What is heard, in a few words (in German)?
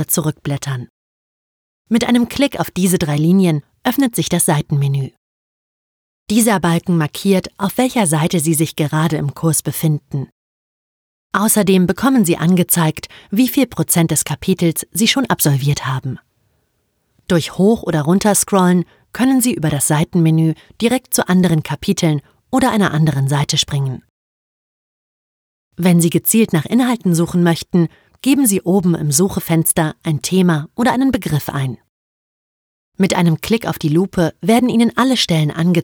E-Learning Sprecherin Selbstlernkurs